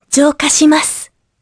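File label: Vox Skill Japanese; Laias; kingsraid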